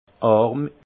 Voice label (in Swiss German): "arm", alsace; vin; pauvre